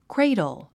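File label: "cradle", English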